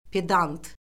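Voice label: "pedant", Azerbaijani; Orfoqrafiya Lüğəti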